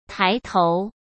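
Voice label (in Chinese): "抬头", Mandarin Chinese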